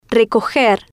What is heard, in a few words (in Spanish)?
"recojer", Spanish